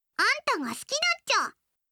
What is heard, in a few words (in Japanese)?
好きです 富山; 真美